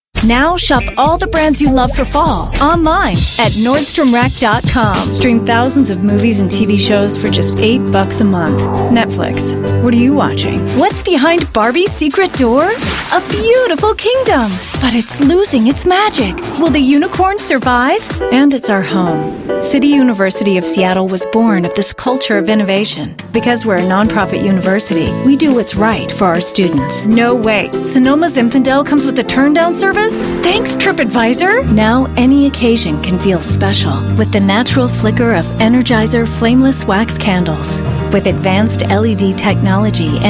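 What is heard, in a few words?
american us female commercial; english; voice demos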